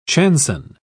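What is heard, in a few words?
"chanson", British English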